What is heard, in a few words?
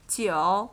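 "Jiu", nine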